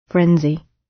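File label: "frenzy", British English; Time, history; Thời gian, lịch sử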